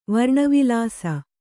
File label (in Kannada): "ವರ್ಣವಿಲಾಸ", Kannada